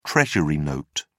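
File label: "treasury note", British English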